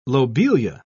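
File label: "lobelia", English, British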